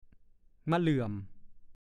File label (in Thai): "มะเหลื่อม", ภาษาโคราช; ชื่อต้นไม้ชนิดหนึ่งผลคล้ายสมอ เม็ดคล้ายเม็ดกาน้า